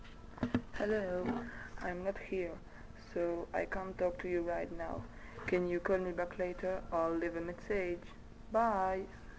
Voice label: answering machine